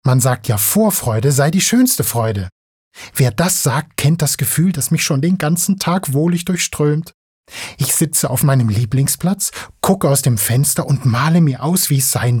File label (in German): Vorfreude Dynamisch